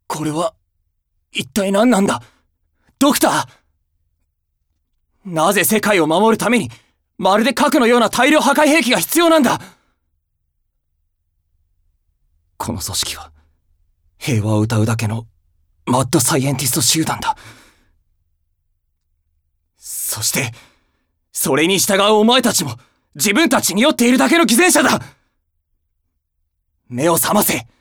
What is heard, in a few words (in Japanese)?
青年 敵側の正義; 大阪府